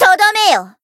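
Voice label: finish, Japanese; alma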